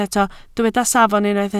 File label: "safon", Welsh